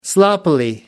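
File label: "sloppily", British English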